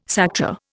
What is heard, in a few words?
"Sakta", Indian